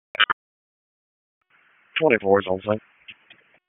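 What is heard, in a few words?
Public Safety Tactical, p25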